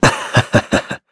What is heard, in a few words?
Vox Happy; Shakmeh; kingsraid